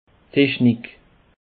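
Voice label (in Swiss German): "technik", Bas Rhin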